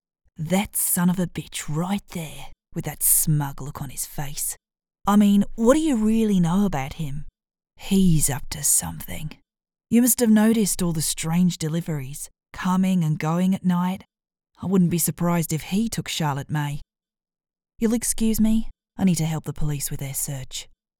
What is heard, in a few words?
AUS Accent